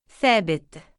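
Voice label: "thaabit", Arabic, male; given name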